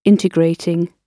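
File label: "integrating", British English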